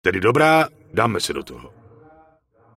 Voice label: Night Elf Male Warrior NPC Greeting; wowpreklad; czwow